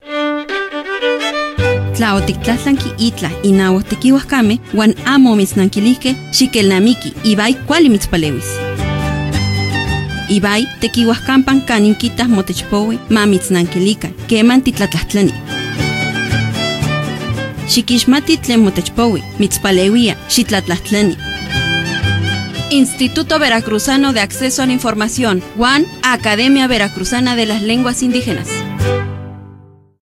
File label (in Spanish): nahuatl spot